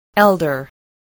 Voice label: "elder", English